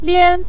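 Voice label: "lian" neutral tone, Mandarin Chinese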